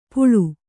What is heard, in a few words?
"ಪುಳು", Kannada